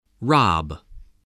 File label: "rob", English spelling